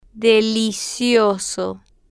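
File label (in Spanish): "delicioso", Spanish, female, slow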